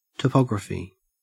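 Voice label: "topography", Australian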